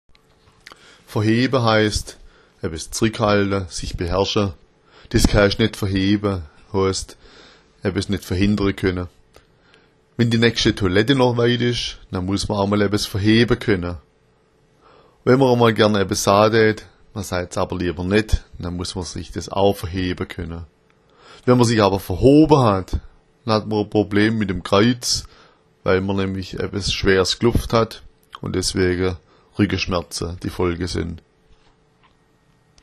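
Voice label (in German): verheba, schwäbisch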